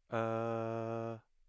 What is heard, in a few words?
mid-low central unrounded vowel